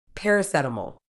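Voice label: "paracetamol", medical English, British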